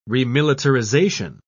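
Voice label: "remilitarization", British English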